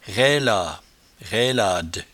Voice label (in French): "railad", patois jura